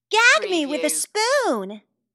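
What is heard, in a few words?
Teen Girl Says Gag Me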